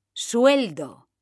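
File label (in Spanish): "sueldo", female; mekanta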